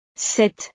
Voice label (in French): "sept", frances